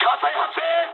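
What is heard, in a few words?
on fire mood med, speech japanese; War Thunder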